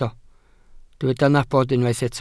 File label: "nabod", Welsh